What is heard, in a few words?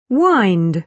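"wind", British English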